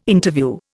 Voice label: "Interview", Indian